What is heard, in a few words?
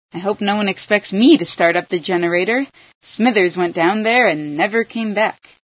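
power off, female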